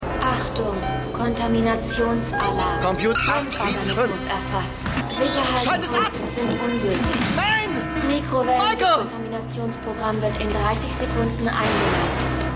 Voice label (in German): Computerstimme; Outer Limits